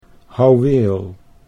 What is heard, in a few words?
"houweel", Dutch